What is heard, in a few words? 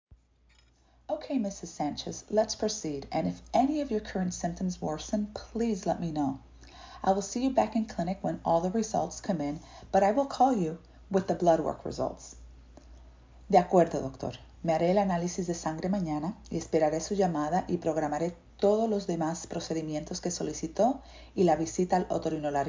Medical Certified Oral Spanish Practice